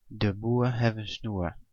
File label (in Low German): "Boer", "snoer"